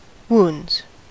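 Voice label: "wounds", US English; pronunciations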